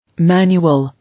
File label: "Manual", English